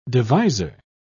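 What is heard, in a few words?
"deviser", British English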